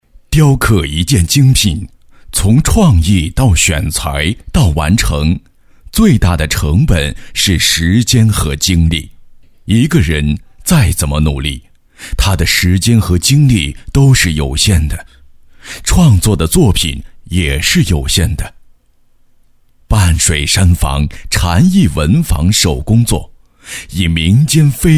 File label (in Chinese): 男-试音; 雕刻